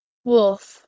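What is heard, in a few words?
"wolf", English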